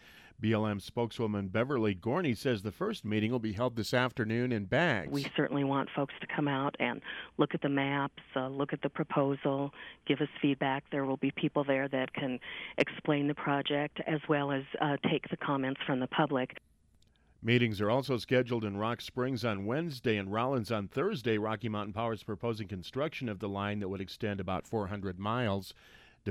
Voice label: Wyoming radio news